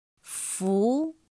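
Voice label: "fú", Chinese; pinyin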